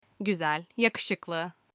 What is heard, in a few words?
pretty in turkish